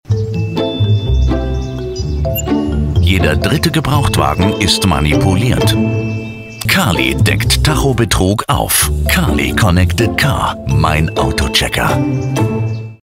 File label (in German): werbung TV CONNECTED CAR; CARLY